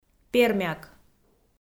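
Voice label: "пермяк", Komi, female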